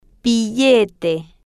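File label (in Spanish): "billete", spanish, female, slow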